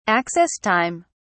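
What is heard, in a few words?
"access time", British English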